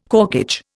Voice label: "corkage", Indian